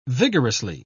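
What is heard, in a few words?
"vigorously", British English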